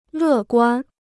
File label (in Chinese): "乐观", Chinese